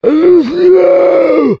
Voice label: zombie enemy; STALZONE; customnpcs; stalker